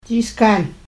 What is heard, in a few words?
jiis'kaan pronunciation; aadsookaan-related words